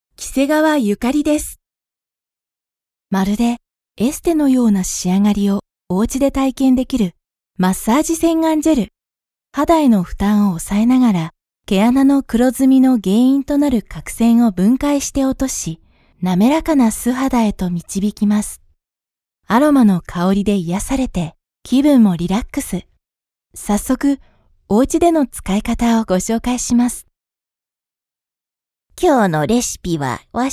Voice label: narration